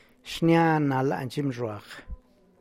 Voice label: The inside of the basket is red, San Lucas Quiaviní Zapotec; Xnia na lany zhimyzhruag.